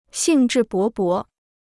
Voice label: "兴致勃勃", Chinese